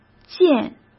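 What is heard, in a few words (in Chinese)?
"建", Mandarin Chinese; hsk; chinese-flashcards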